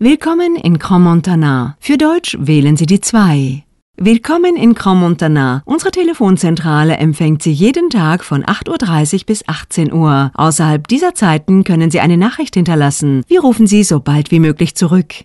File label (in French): Voix Fem Allemande Adulte